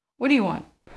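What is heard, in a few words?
Voice Acting Spanish Female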